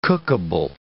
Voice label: "cookable", English